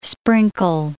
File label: "sprinkle", English